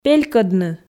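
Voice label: "пелькӧдны", Komi, female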